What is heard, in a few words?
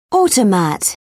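"automat", English, British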